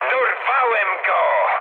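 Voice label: player killed enemy mood high, speech Polish; War Thunder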